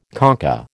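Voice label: "concha", American English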